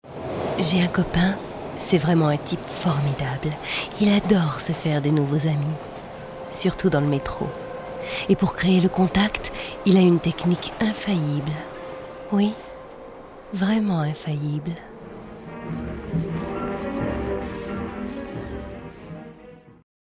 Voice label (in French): Metro sensuel, Voix Zen